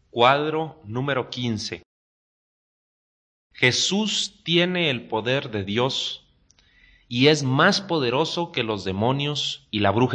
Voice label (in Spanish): good news spanish mexico